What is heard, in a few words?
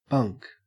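"bunk", Australian English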